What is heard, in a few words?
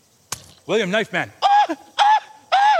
shout; william knifeman